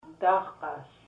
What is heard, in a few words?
one, Twana